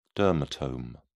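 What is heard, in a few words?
"dermatome", British English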